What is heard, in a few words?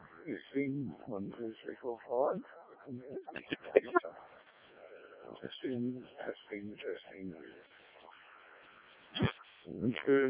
decoded speech, 7177KHz